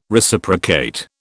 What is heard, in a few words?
"Reciprocate", British